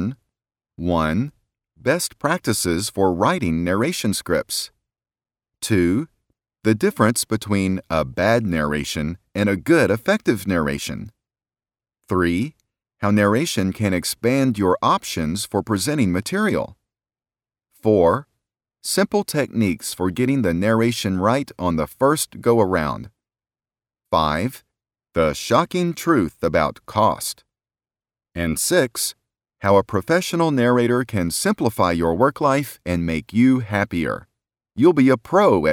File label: voiceover